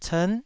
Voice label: "cern9", taiwanese Syllables